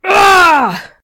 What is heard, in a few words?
Female Constable combat sound; SyrinScape; Missing Magistrate